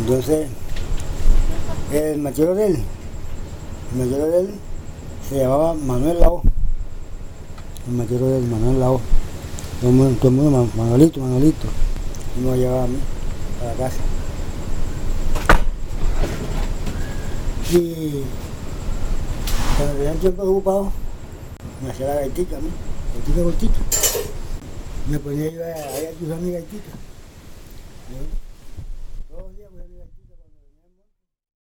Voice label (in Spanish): entrevista, gaita negra